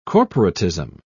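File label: "corporatism", British English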